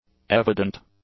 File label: "evident", English; adjectives